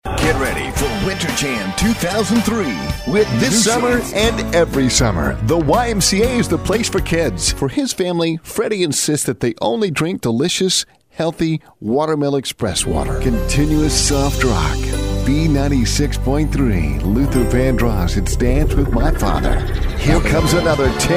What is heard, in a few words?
Commercial Male